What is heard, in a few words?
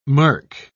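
"mirk", British English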